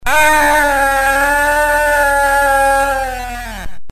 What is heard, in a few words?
argh, Commodore64 speech; Impossible mission; speech pack variations